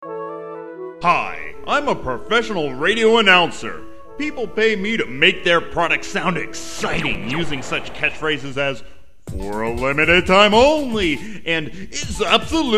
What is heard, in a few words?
Commercial